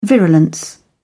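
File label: "virulence", English, British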